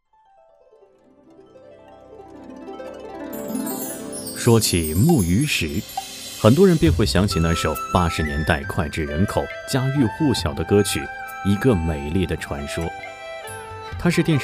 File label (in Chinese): 男 电视片解说